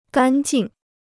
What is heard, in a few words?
"干净", Chinese